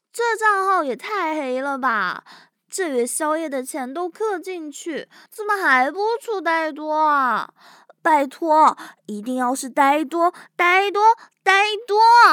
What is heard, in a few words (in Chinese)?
台版试音; 玄不救非